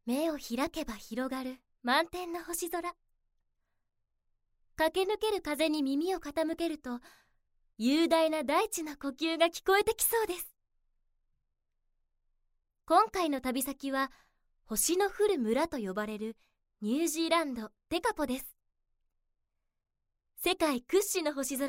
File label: narration